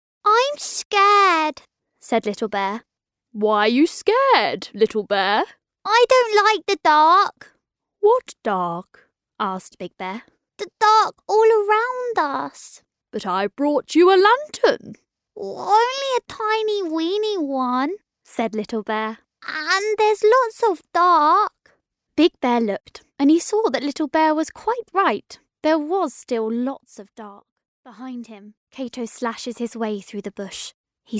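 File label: Audiobook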